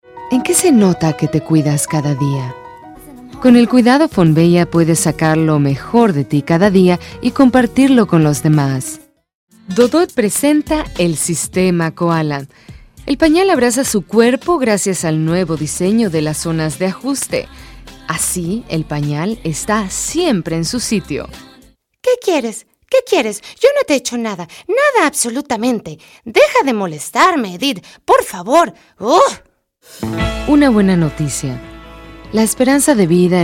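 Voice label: Spanish Latin American, General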